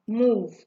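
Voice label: "move", Nigerian Pidgin, female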